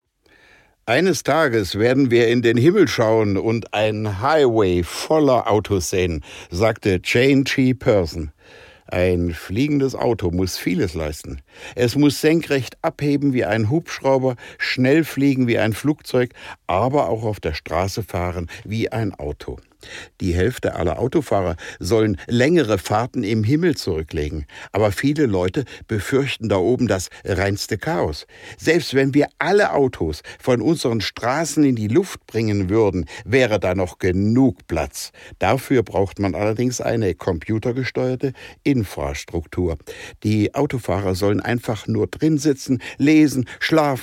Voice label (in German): Probe 4 Kommentar - Reportage